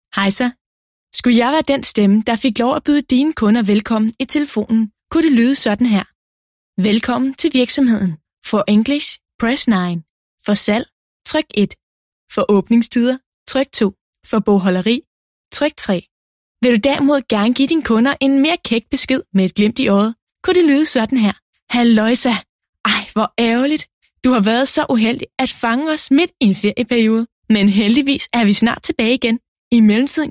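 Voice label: Danish Corporate; GoLocalise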